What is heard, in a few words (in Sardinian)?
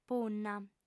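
"punna", female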